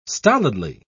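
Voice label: "stolidly", English, British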